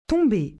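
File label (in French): "tomber", French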